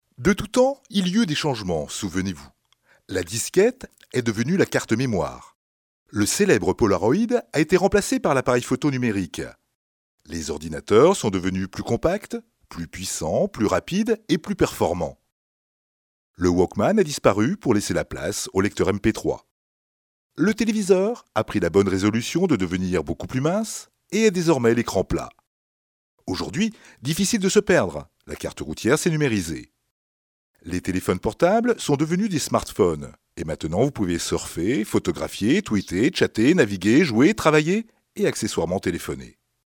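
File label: French, male, narration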